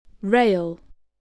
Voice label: "rail", voice spelling

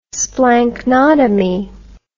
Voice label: "splanchnotomy", english

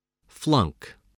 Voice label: "flunk", spelling

